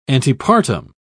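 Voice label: "antepartum", British English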